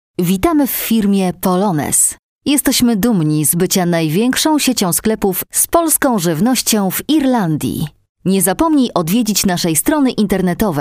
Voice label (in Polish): Polonez IVR PL